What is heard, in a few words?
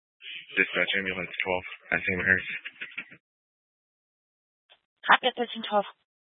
Grand Junction Fire Department Dispatch